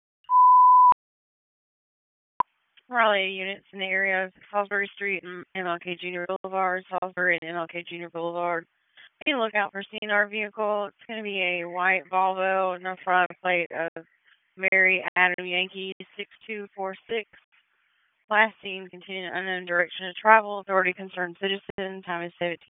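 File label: Police Downtown District Dispatch, p25; Raleigh; wake p25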